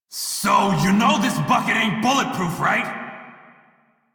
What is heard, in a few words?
Crew Ship Low Male en; Dialog; Warframe; Railjack Crew; Red Veil